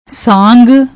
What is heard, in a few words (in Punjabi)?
"saang", Punjabi; ਸਾਂਗ